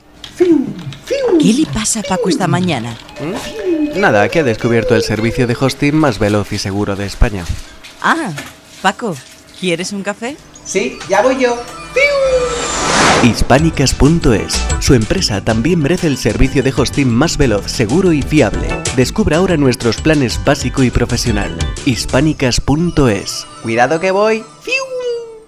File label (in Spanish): Radio Internet; Hispanicas